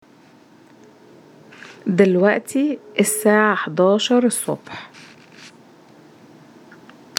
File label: Egyptian